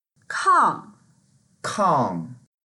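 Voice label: "kang4", Mandarin Chinese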